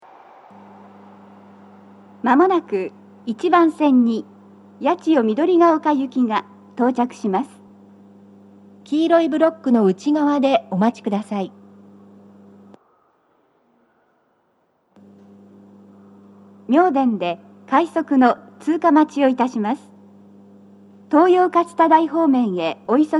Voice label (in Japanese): 接近放送, BOSE天井型での収録です。; 放送は「各駅停車 八千代緑が丘行」です。; 妙典で、快速 東葉勝田台行きの通過待ちを致します。